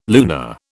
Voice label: "lunar", British